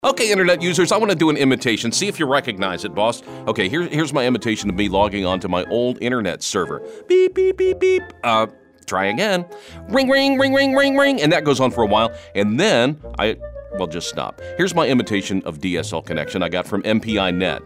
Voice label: kooky

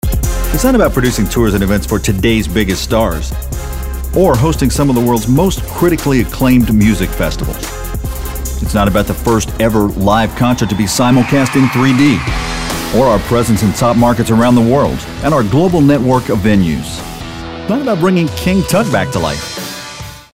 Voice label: announcer

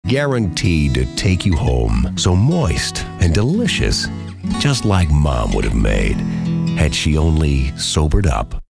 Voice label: warm fuzzy

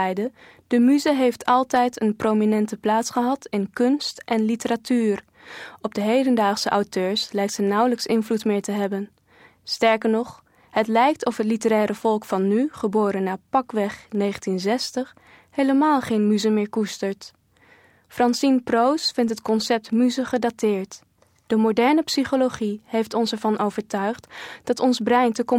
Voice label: Dutch

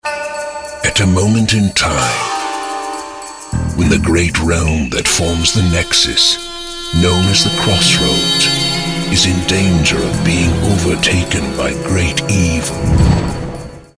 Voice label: movie trailers

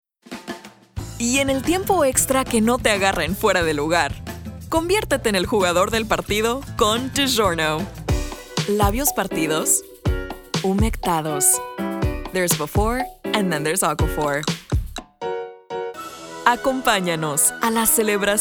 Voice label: Spanish Commercial Compilation

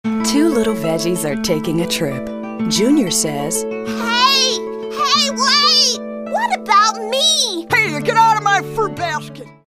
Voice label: cartoon

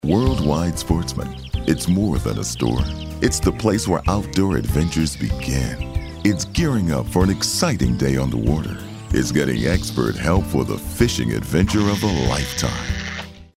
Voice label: folksy homespun

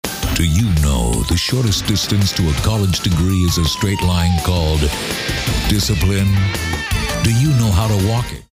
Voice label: attitude

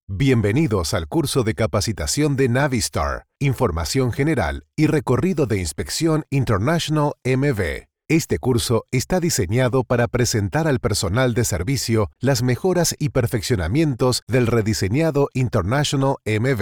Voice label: Training Videos - Spanish

